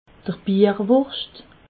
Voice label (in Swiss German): "bierwurscht", alsace